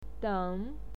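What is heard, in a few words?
"den", Mandarin Chinese, third tone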